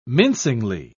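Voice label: "mincingly", British English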